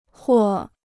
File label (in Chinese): "或", Chinese